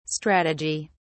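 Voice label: "strategi", British English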